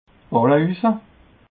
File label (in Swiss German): "balle usse", alsace